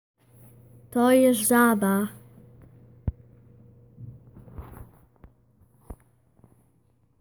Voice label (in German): sprachmemo polnisch